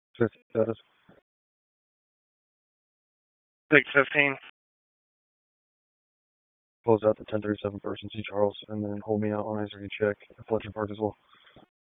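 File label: Police Southwest District Dispatch, p25; Raleigh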